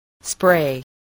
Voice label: "spray", voice spelling